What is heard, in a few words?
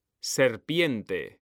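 "serpiente", Spanish, male; mekanta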